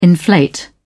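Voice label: "inflate", British English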